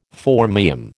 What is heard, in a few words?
"phormium", American